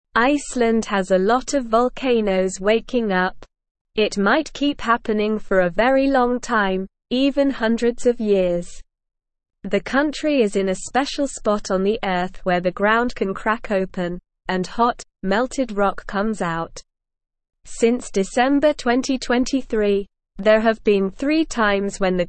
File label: English Beginner SLOW Reading; Icelands Volcanoes Waking Up A Big Mystery